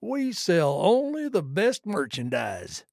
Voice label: trader male like greeting